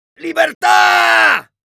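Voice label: battlecry, Spanish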